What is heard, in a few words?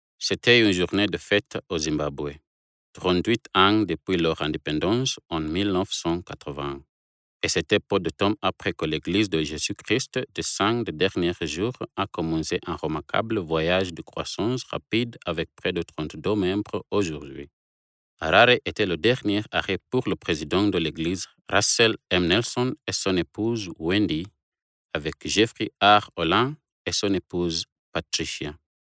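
African French, male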